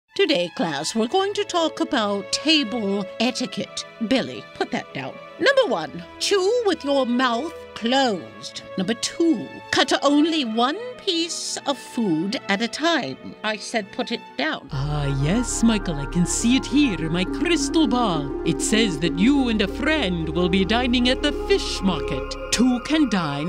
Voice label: character; character demo